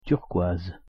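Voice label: "turquoise", French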